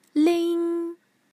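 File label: "ling", Mandarin, tone 1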